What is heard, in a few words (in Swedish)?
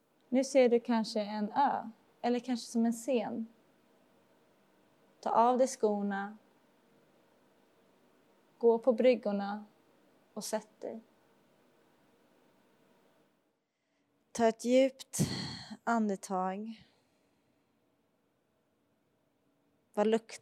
Audioguide; Gylleboverket